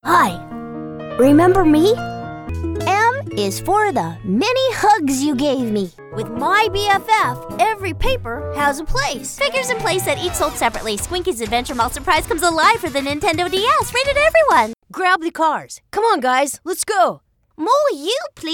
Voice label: Boys Girls